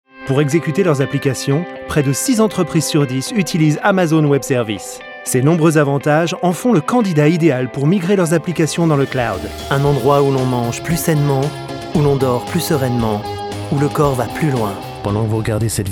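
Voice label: French, corporate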